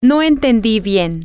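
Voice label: I didnt understand very well, Spanish, female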